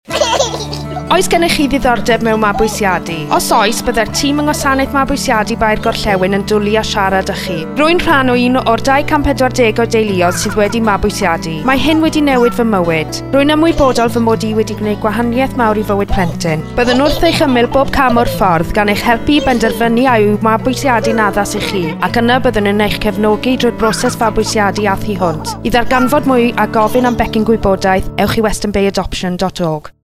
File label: Welsh